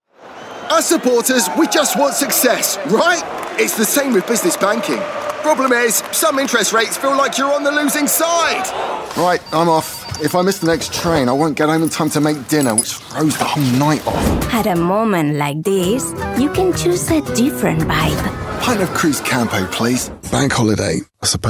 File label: conversational character